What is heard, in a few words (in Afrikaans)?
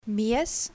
Vokaal in "mees"